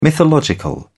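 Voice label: "mythological", British English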